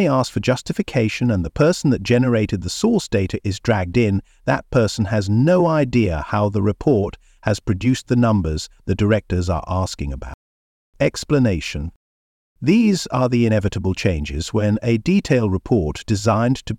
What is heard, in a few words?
Chinese Whispers; Corporate term Chinese Report Whispers